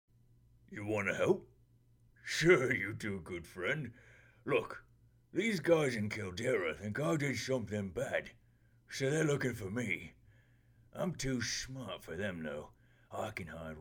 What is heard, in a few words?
orc, voice auditions